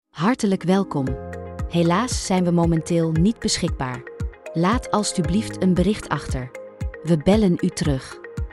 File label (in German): ansage